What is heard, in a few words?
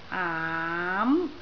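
"aam2", Cantonese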